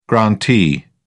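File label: "grantee", English, British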